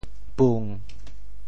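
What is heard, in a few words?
"pung7", Teochew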